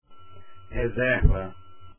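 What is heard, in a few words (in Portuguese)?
"reserva" Portuguese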